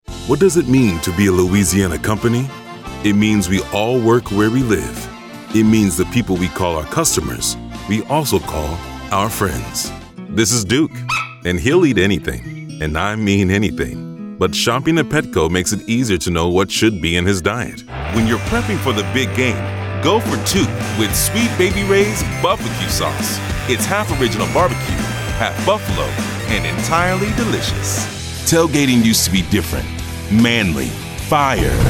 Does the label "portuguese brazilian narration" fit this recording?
No